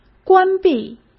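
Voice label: "关闭", Mandarin Chinese; hsk; chinese flashcards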